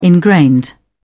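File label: "ingrained", British English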